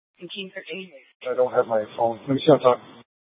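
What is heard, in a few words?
Police Department Dispatch, scanner; Mesa PD; 4 Superstition Dispatch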